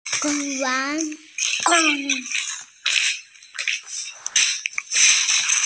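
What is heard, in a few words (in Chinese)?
"gong1yuan2", Chinese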